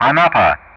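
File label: "Anapa", English; Ka-50; Callsign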